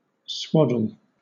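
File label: "swaddle", British English; pronunciations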